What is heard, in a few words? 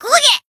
Voice damaged jp; ilulu